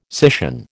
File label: "scission", American